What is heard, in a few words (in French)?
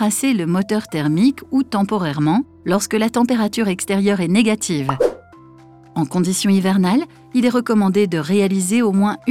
elearning voiture hybride mode emploi voix off; hybrid vehicles